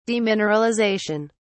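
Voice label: "demineralisation", British English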